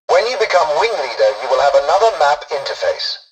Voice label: tutorial dialogue, english; War Thunder